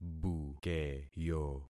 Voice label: "bukeyo", concat syllables; Affixing experiment